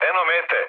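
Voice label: Serbian near waypoint mood med; speech; War Thunder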